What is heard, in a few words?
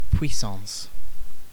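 "puissance", British English; pronunciations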